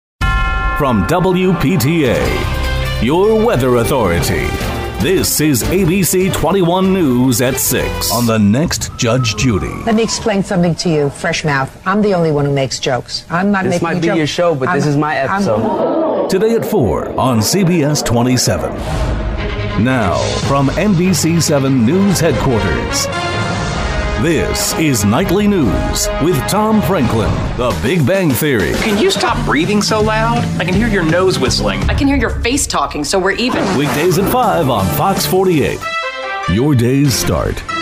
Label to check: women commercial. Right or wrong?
Wrong